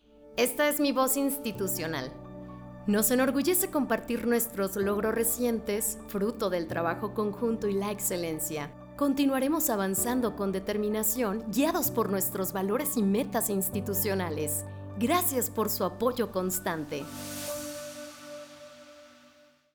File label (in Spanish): Institucional, locutora